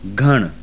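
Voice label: voiced aspirated velar, sindhi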